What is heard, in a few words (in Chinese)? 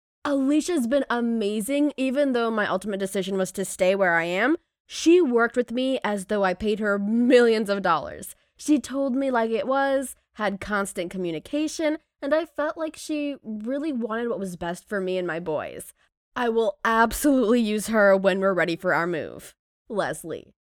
外籍英女 随意自然 评价